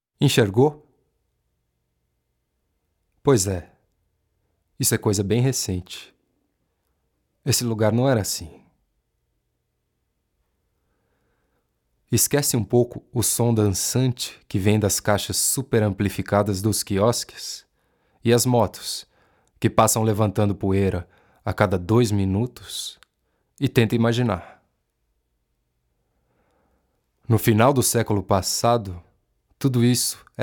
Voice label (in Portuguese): NARRACAO; meu tio lobisomem